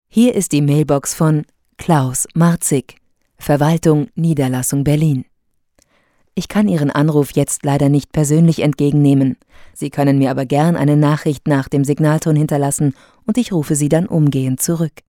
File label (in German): deutsch, Sprecherin, telefonansagen